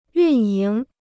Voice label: "运营", Chinese